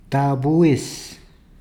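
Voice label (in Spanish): "tabues", Spanish, Peru, Cuzco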